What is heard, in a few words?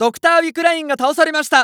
Announce Wickeline Die, JPN; Eternal Return